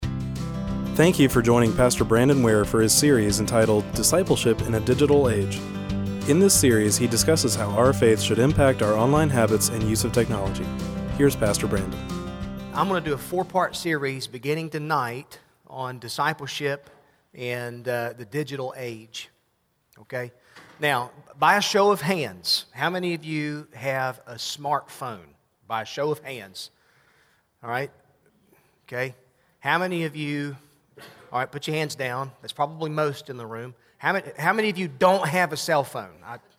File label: voiceover